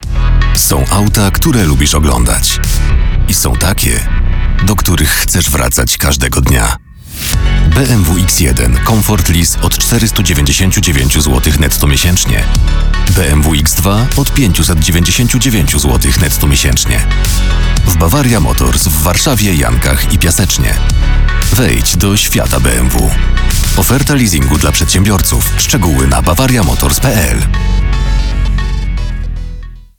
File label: polish automotive